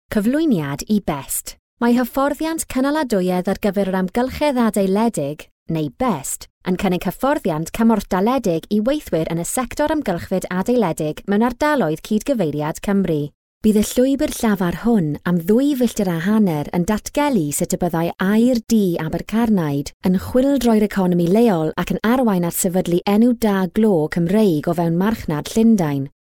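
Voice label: Welsh, narration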